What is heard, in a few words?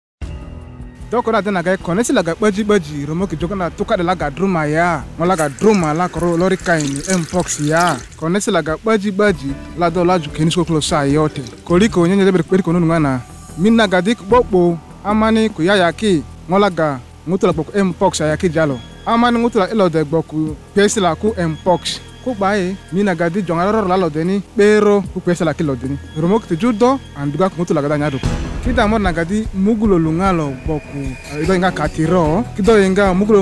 Narrator KAKWA, radio spots; MPOX; The MPOX Campaign Safe Circles